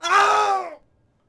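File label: Warrior Death Vox; Civ4 warlords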